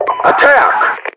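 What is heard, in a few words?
Russian attack, voiceovers; english; mpvoice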